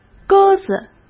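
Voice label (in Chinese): "鸽子", Mandarin Chinese; chinese flashcards; hsk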